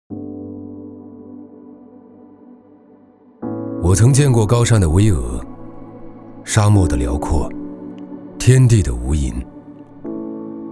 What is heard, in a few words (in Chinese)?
高端 自然; 天地无垠